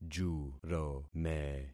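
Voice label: "jurome", concatenated syllables; Affixing experiment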